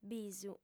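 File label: "bisu", lingua sarda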